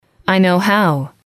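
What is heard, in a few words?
i know how, English, female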